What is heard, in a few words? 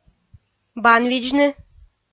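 "банвидзны", Komi, female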